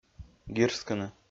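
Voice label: "гирскыны", Komi, male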